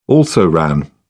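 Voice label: "also ran", British English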